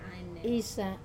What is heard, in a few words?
"sa", climb